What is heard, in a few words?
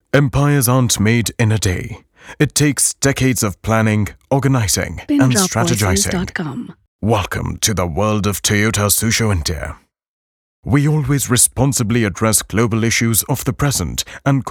English